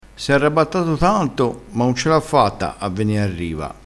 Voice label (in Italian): "arrabattassi", crespinese